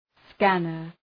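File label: "scanner" English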